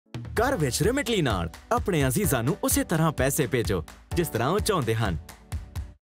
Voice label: Ad Punjabi; Remitly